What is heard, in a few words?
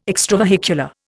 "extravehicular", Indian